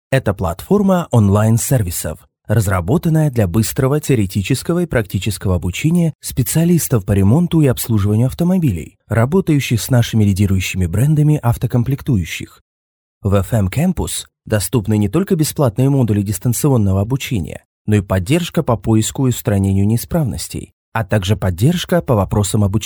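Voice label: Corporate educational Russian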